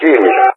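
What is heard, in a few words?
"kill", English; Allied Flight; DCS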